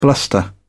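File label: "bluster", British English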